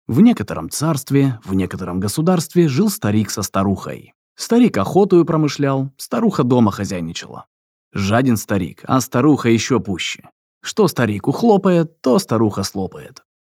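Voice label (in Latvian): Pasaka Russian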